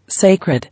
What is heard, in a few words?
"Sacred", English